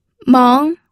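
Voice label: "meng", second tone, pinyin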